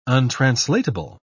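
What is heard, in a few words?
"untranslatable", British English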